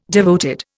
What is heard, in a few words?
"devoted", Indian